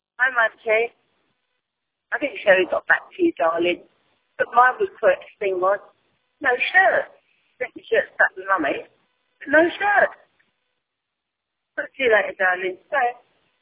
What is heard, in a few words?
voicemail